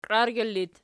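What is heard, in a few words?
"qiargyla" locative, Archi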